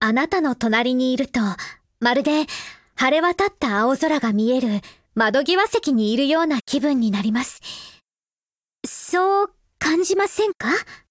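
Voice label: affinity Japanese; Springfield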